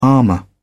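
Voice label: "armor", British English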